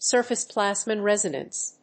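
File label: "surface plasmon resonance", English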